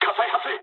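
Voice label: on fire mood low, speech japanese; War Thunder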